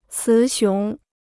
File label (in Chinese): "雌雄", Chinese